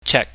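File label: "chek", Cantonese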